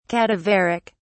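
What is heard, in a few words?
"cadaveric", British English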